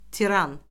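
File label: "tiran", Azerbaijani; Orfoqrafiya Lüğəti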